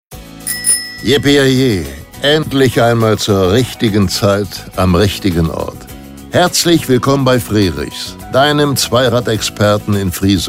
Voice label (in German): IVR Ansage; Frerichs